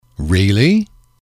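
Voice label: "really", English, male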